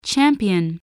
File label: "champion", English, voice